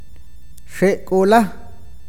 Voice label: vientre, awakateko

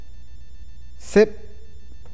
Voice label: "humo", awakateko

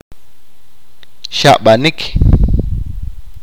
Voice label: vomitar, achi

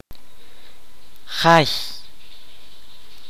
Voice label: "casa", Kaqchikel

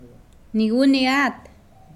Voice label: Nawat palabras beber; pipil

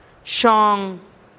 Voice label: "xon" ocho, teotitlan

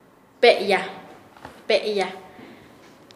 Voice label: "sobra", Nasa Yuwe; paez

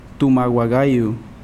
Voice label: Nawat palabras grasa; pipil

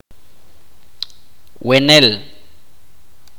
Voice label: dormir, itza

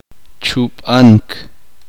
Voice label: uspanteko escupir

